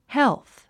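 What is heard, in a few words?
"health", English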